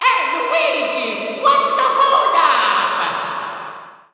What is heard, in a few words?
Mario Echo; Luigi Mansion